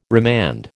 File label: "remand", American